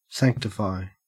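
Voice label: "sanctify", Australian English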